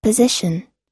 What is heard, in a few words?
"position", US; pəzíʃn; 位置、場所、所、所在地; schwa unstressed; Medial 中央部に該当の発音有り